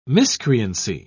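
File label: "miscreancy", British English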